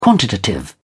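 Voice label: "quantitative", English, British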